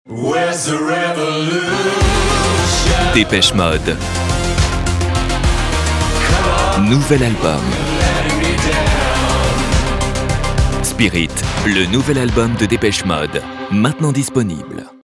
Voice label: elegant, Voix off disque

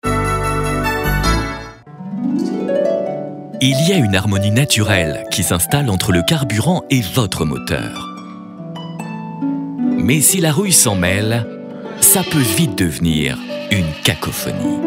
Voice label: flegmatique malicieux; Esso

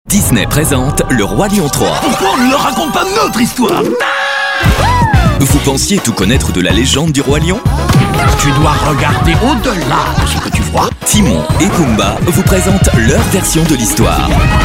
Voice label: souriant, voix off jeunesse

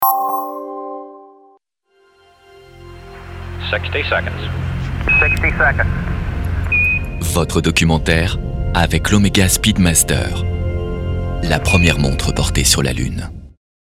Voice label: droit, comedien voix off parrainage; OMEGA droit

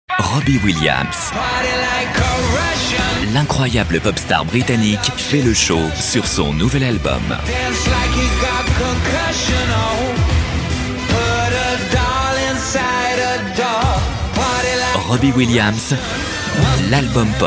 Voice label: elegant, Voix off disque

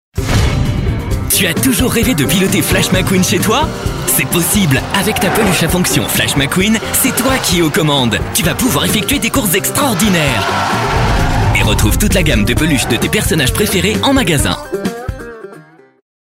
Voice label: junior, voix off jeunesse; junior, enthousiaste; CARS

